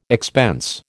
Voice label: "expense", American